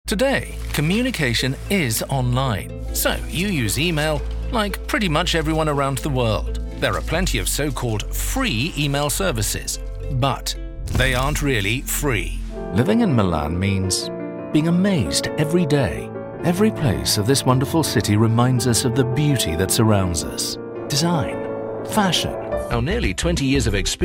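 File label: English, British, male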